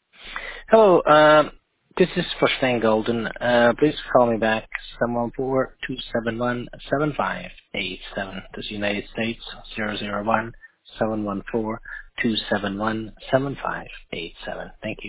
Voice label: voice mail example